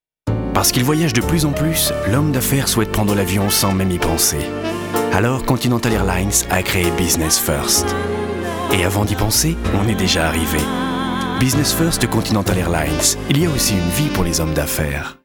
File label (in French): Pub TV; CONTINENTAL AIRLINES